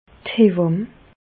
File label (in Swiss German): "hewamm", Bas Rhin; medecine; sage femme